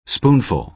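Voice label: "spoonful", American English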